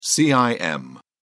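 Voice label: "cim", British English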